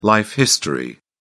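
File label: "life history", British English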